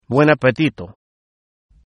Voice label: bon appetit, es